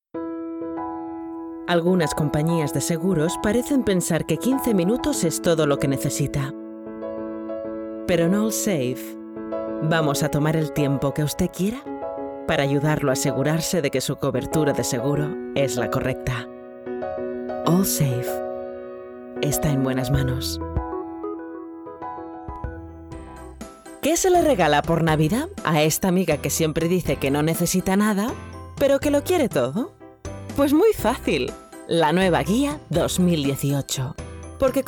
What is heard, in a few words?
Spanish